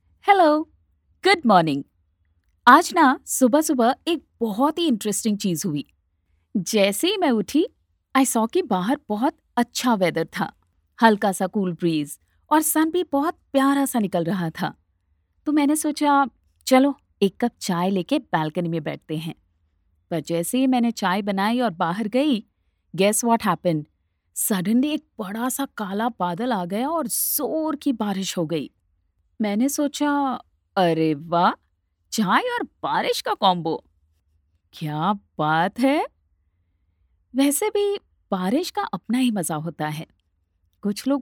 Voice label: Monologue Hindi

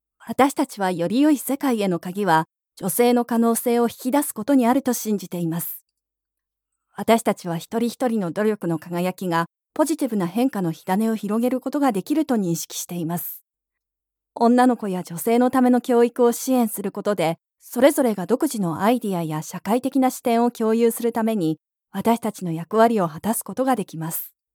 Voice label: explainer; Professional and experienced Japanese voice over artiste

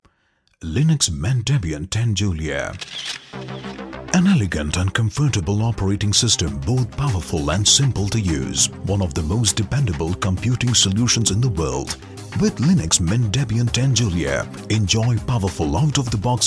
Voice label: Product Presentation English

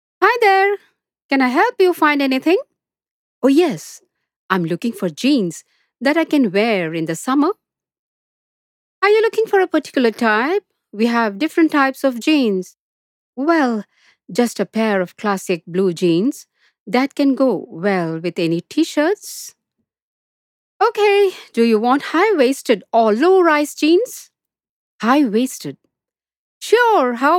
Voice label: ENGLISH VOICE ASST

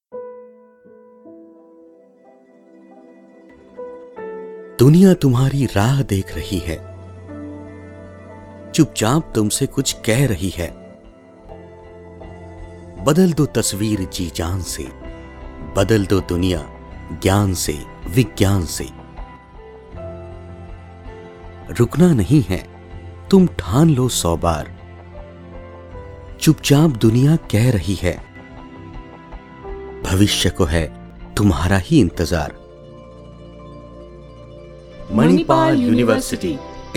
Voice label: promo hindi; manipal

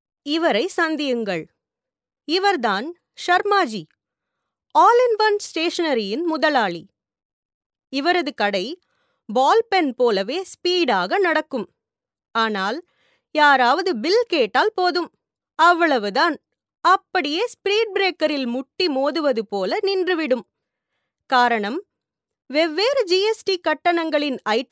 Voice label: Narration Tamil; Attractive, clear, sharp, perfect voice

